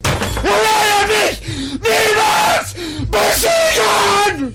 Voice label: angry; spackenmobs